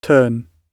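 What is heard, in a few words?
"turn", British English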